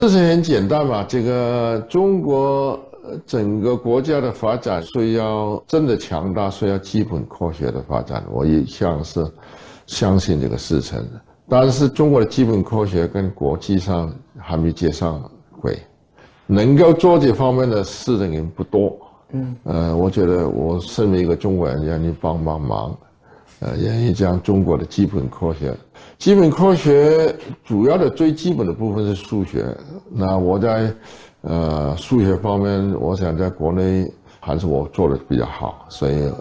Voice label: interview